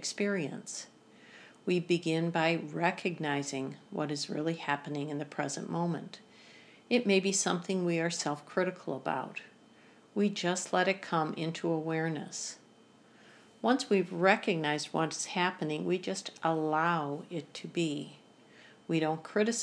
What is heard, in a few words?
RAIN Meditation